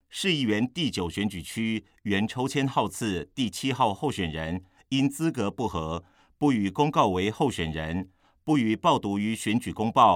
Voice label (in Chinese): 國語; 新北市; 有聲公報; 市議員; 第9選區